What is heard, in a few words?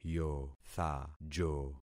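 "yothajo", concatenated syllables; Affixing experiment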